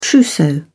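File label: "trousseau", British English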